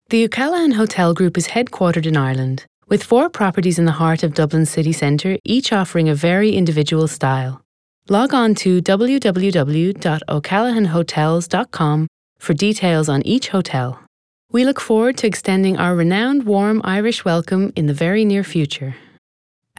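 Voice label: English Irish, IVR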